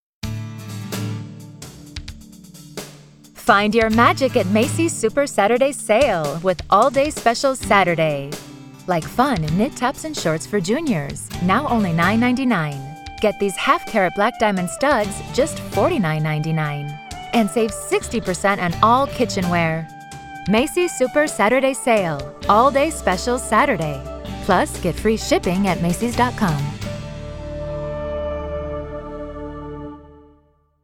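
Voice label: comercial, American English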